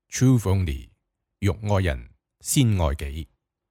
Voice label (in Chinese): 粤语时尚